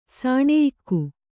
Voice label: "ಸಾಣೆಯಿಕ್ಕು", Kannada

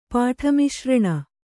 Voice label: "ಪಾಠಮಿಶ್ರಣ", Kannada

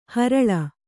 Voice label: "ಹರಳೆ", Kannada

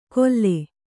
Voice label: "ಕೊಲ್ಲೆ", Kannada

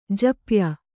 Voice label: "ಜಪ್ಯ", kannada

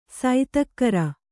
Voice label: "ಸೈತಕ್ಕರ", Kannada

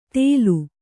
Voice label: "ತೇಲು", Kannada

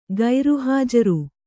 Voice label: "ಗೈರುಹಾಜರು", Kannada

